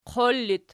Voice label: "qol", Archi

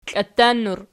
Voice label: "klatannur", Archi

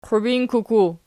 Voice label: "qubinkuku", Archi; qubinququ

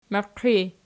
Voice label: "miiq", Archi

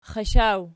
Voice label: xashaw nom, archi

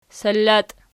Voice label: "sallati", Archi

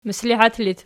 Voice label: "mislihat", Archi

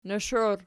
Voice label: "noish", Archi

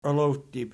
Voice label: "olowttib", Archi

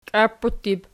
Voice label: "qiaputtib", Archi

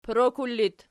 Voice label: "porokul", Archi

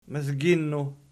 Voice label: "mizginnu", Archi

